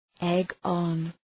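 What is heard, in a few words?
"egg on", English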